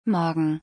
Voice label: Morning in German

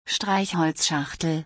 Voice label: Matchbox in German

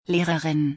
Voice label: Female teacher in German

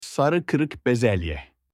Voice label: lentil in turkish

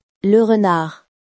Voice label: fox in french

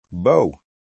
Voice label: beautiful in french

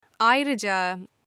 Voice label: also in turkish

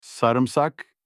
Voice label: garlic in turkish

